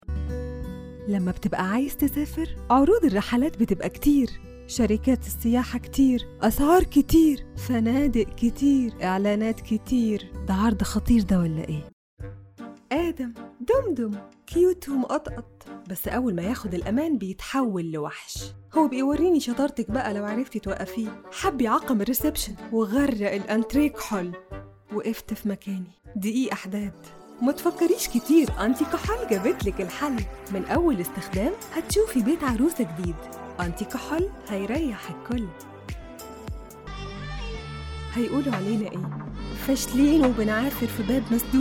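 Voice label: Egyptian Accent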